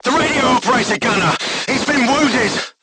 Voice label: voice message machine gunner wounded, crew tanks portuguese